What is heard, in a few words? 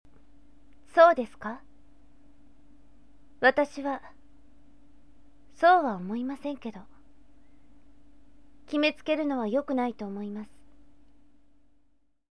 sample girl